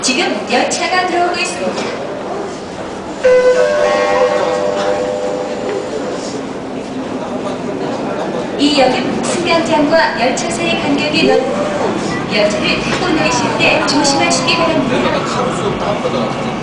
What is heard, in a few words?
Subway line 2, Korean